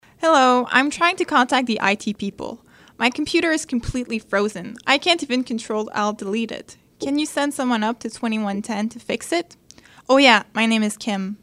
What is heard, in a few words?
voicemail message frozen computer